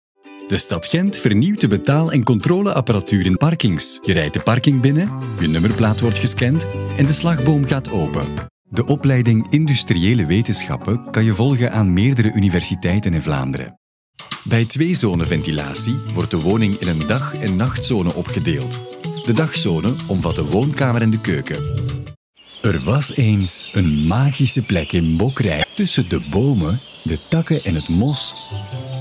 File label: male Flemish corporate compilation